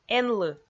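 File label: "энлы", Komi, female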